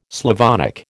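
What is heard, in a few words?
"Slavonic", American English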